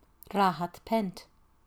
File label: Pent Road, Gaelic